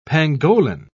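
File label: "pangolin", British English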